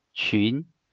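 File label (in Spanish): "裙", chino mandarin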